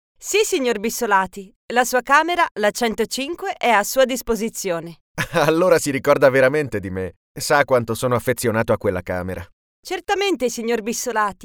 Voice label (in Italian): attenzione clienti dialogo